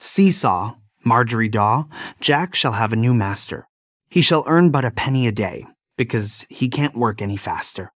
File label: male US, classic rhymes; See Saw Margery Daw